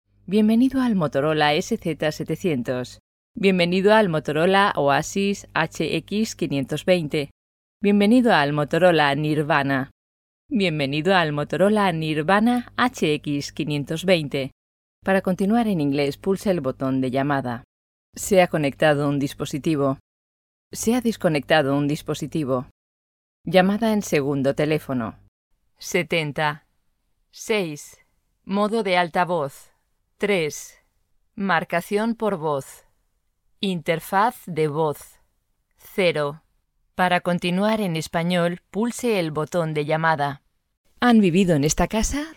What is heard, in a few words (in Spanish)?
es phone; Motorola